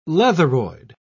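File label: "leatheroid", English, British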